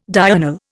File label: "diurnal", Indian